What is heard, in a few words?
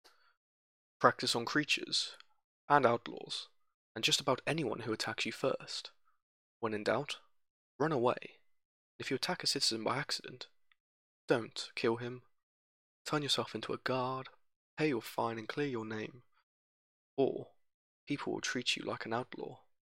high elf voice auditions; arrille; practice your skills